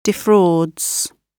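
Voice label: "defrauds", British English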